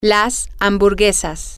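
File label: the hamburgers, Spanish, female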